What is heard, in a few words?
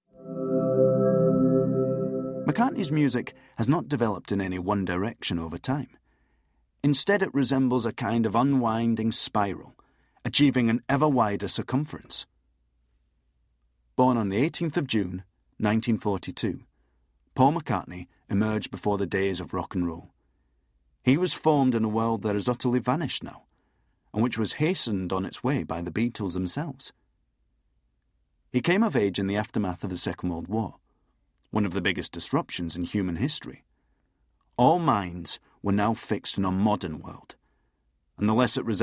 audiobook extract; conversations with mccartney by paul du noyer